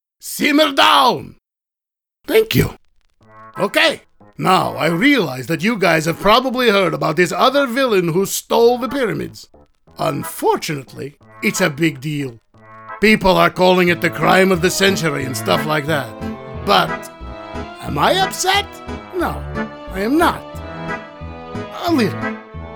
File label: ANIMATION